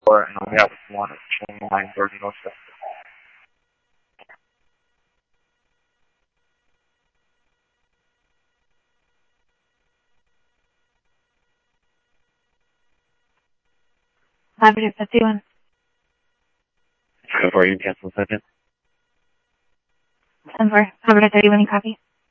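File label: police radio dispatch, ScottsdalePolice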